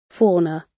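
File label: "fauna" British; Flora and fauna, agriculture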